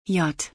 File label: The letter J in German